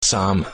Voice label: hakka numbers